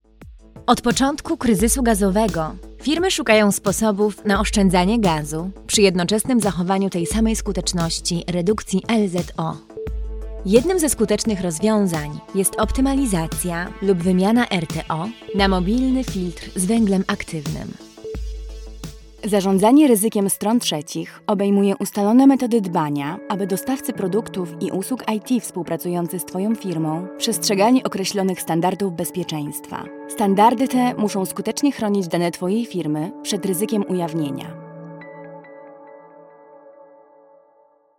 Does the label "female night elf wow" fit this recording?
No